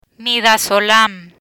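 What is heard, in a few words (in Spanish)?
"Midazolam", Spanish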